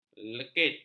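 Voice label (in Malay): "lekit", Malay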